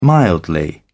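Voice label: "mildly", British English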